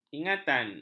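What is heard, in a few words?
"ingatan", Malay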